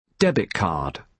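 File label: "debit card", British English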